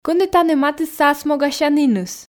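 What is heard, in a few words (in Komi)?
кӧні тані матысса асмогасяніныс, Komi, female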